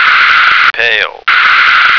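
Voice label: "pail", American English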